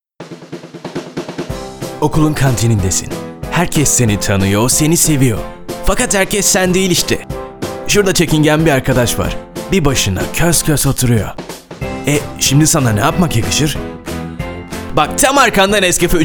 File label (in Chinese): 土耳其语男 轻快 年轻